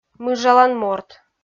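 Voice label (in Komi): "мыжалан морт", Komi, female; kpv audio dict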